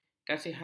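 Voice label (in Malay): "kasihan", Malay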